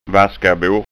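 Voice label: "vaskabor", Scanian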